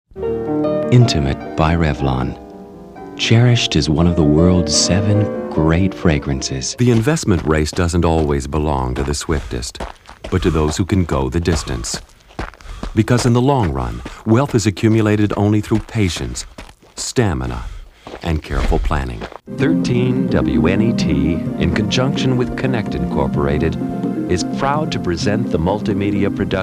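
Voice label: commercials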